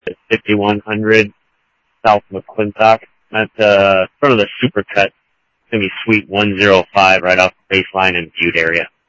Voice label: Tempe Police, scanner audio; TEMPE PD A04